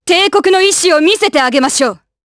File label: Vox Skill jp; Glenwys; kingsraid